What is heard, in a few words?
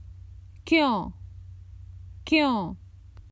Why, Common Hindi Phrases for travel